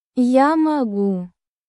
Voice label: russian phrases

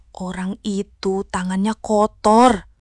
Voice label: indonesia, indonesian negative phrases

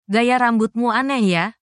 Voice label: indonesian phrases

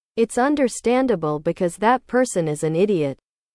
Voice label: english

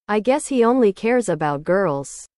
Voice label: english

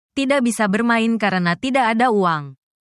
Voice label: indonesian phrases

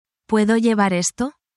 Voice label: spanish, spanish phrases

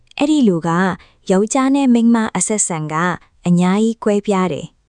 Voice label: negative phrases in burmese, insults and slang

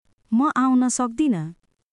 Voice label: Nepali, basic phrases

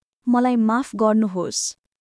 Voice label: Nepali, basic phrases

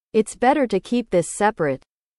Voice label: english

